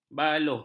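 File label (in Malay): "baluh", Malay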